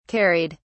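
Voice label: "carried", English, British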